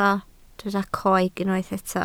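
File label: "coeg" Welsh, spoken word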